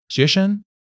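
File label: "xue2 sheng5", Chinese; HSK1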